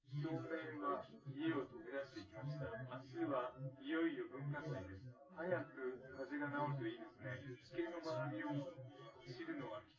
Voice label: male synth conv 250 ms 5 cm